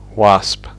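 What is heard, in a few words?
"wasp", English; fonetica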